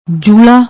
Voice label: "joola", Punjabi

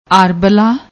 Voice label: "arbla", Punjabi; ਆਰਬਲਾ

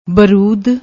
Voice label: "barood", Punjabi

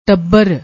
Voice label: "tubr", Punjabi

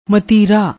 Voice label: "mateera", Punjabi; ਮਤੀਰਾ

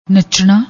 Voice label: "nachnaa", Punjabi

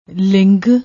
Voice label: "ling", Punjabi

